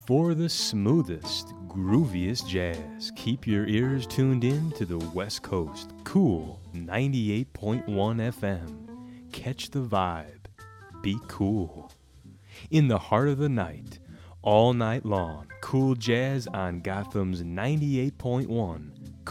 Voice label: Podcast